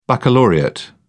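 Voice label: "baccalaureate", British English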